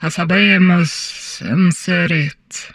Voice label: humanoid female; Stellaris; humanoids